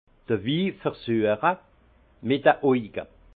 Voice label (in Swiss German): "win versueche", alsace; vin; goûter le vin avec lœil